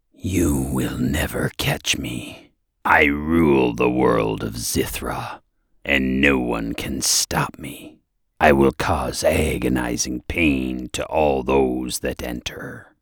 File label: Sinister Video Game Character